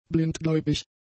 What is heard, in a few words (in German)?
"blindgläubig", German; adjectives